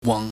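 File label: "wang", Cantonese, low falling tone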